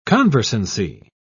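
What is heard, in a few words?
"conversancy", British English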